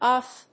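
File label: "UHF", English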